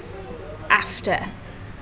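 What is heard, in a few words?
"after", British English, female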